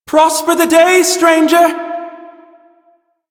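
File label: Male Brisk en, ambient dialog; Warframe; Duviri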